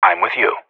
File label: radio bot follow you, vo professional; Counter Strike Model Pack; CS:GO